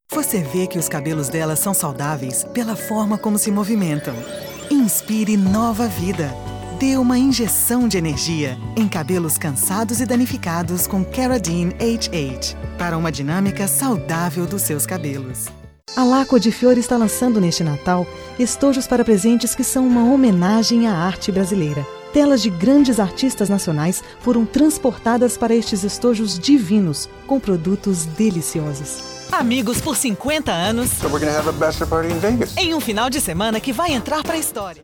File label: Por Bra